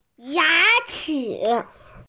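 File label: "ya2chi3", Chinese, female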